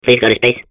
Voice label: babble; Portal 2